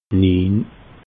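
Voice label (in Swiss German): "niin", Alsatian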